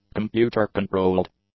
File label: "computer-controlled", English; adjectives